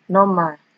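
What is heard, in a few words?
"normal", Nigerian Pidgin, female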